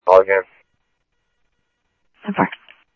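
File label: Phoenix police department, scanner; ThompsonPeakHigh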